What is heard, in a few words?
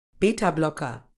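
"beta blocker", British English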